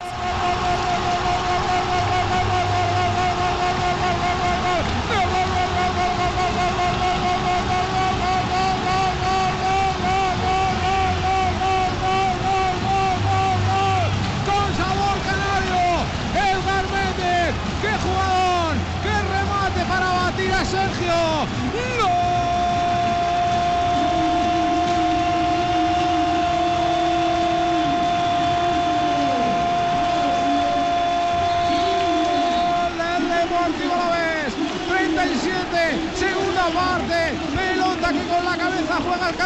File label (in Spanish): narración